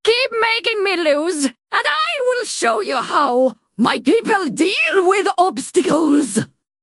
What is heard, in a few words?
upset; fertig classic games